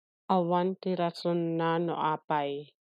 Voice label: Ilocano